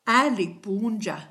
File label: good afternoon, kichwa